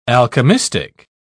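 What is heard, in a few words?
"alchemistic", British English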